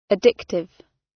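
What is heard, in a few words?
"addictive" British English; Technology; Công nghệ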